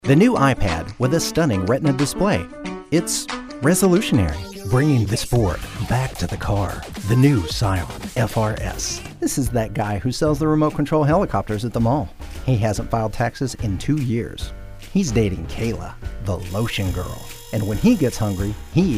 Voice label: Commercial Voiceover

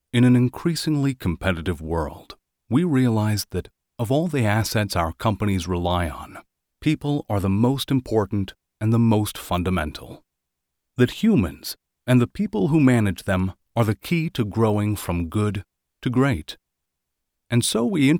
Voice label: Narration for Corporate Video

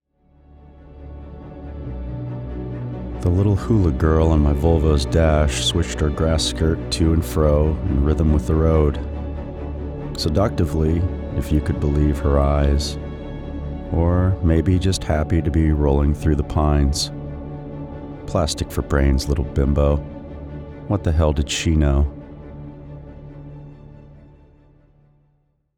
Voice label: comedic male voice over; little hulu girl volvo

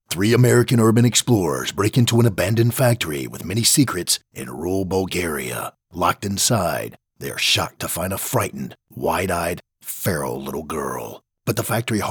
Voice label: Monsters trailer

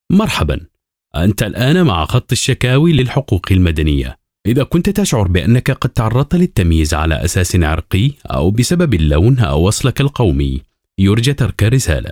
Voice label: Arabic Public Transit Complaint Line sample

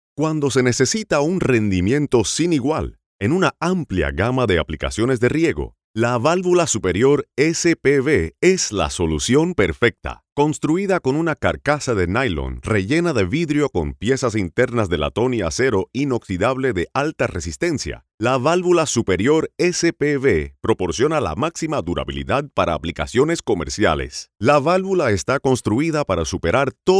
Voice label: SPANISH EXPLAINER

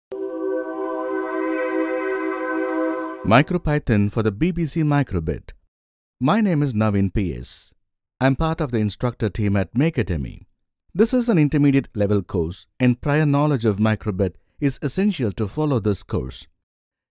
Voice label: E Learning; Microbit

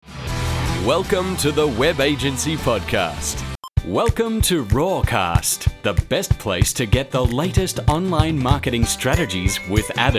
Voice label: Podcasts Radio

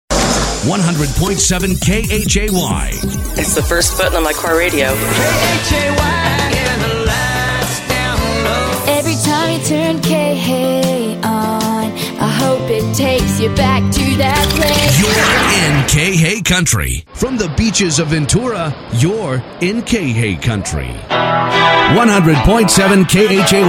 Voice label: imaging

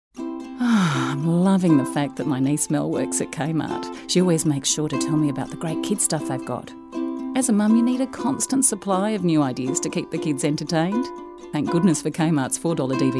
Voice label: Voice Over

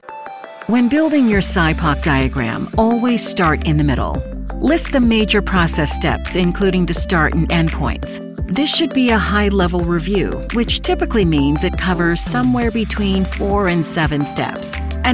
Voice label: Television Spots, Luxury Car Bold, Female Voice; SIPOC

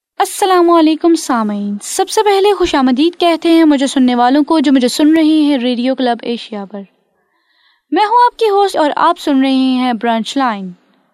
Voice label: dry sample

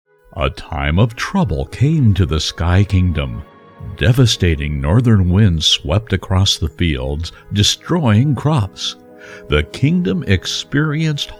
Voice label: Narrator

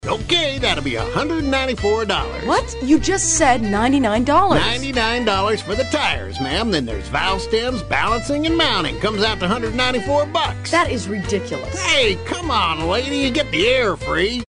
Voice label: old man

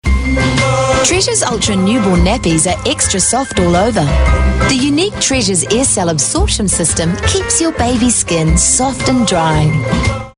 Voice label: TVC Relaxed Natural

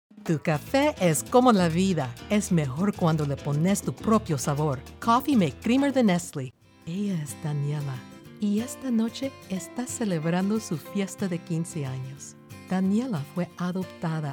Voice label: SPANISH

